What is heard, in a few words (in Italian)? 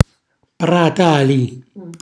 "pratali", Calabrese; cucina calabrese; Glossario